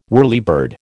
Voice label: "whirlybird", American